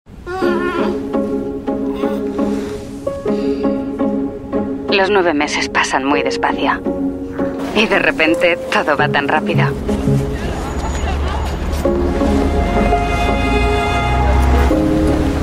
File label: Spanish European Spain; Spanish European Female; Primer; Uber